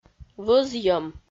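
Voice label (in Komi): "вӧзйӧм", Komi-Zyrian, female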